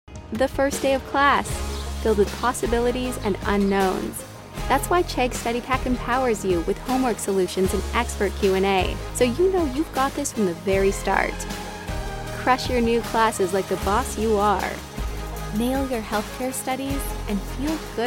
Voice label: Confident; College Chegg